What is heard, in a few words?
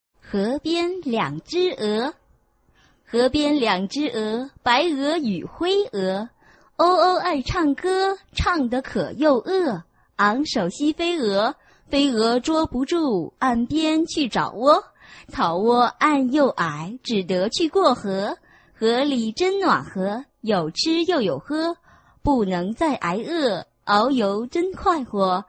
chinese tongue twister